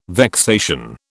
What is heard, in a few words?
"vexation", British